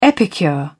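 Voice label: "epicure", British English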